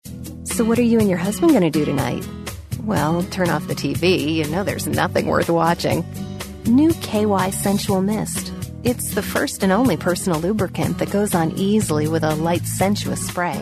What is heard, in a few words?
Commercial Sexy Mellow